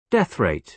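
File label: "death rate", British English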